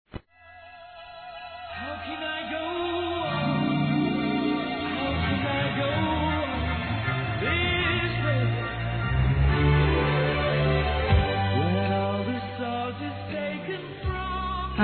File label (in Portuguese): ROMANTICA F; Telemensagens; Românticas